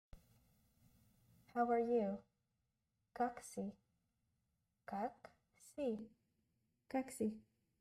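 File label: "How are you?", Bulgarian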